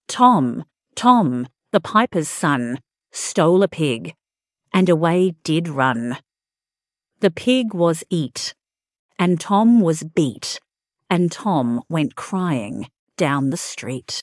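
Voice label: Tom Tom the Pipers Son female aus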